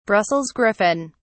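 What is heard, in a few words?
"brussels griffon", English, British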